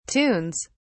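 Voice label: "tunes", British English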